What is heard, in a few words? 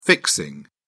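"fixing", British English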